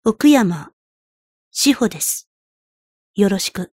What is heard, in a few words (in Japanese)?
暗く静かな感じの少女; 奥山志穂; 「奥山･･･志穂です･･･よろしく･･･